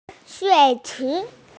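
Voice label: "pool", female; shui3chi2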